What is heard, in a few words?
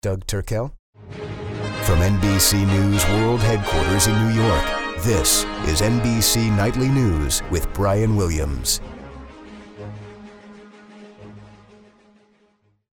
Nightly News Open; NBC